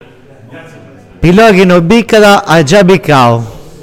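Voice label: "Pilloni", Sardinian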